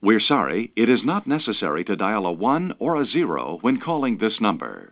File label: male